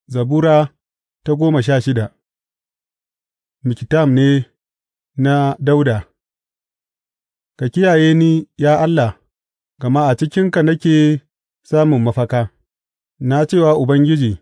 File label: hausa bible drama, psalms; hausa bsn nt drama